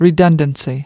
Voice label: "redundancy", us

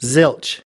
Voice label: "zilch", us

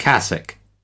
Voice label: "cassock", us

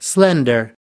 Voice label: "slender", us

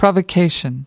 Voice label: "provocation", us

